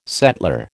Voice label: "settler", American

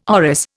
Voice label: "orris", Indian

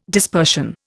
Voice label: "dispersion", Indian

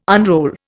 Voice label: "unroll", Indian

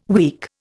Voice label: "week", Indian

